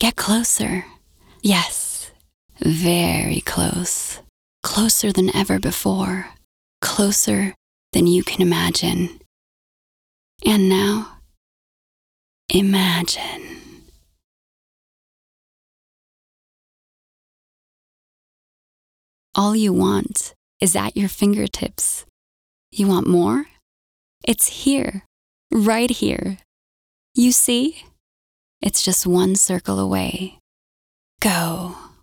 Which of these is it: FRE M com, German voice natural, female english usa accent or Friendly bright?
female english usa accent